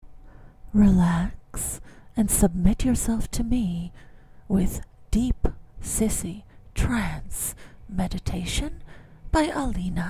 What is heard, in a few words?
Deep Trance Meditation; Sissy